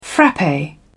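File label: "frappe", English, British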